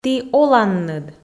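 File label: "ті оланныд", Komi, female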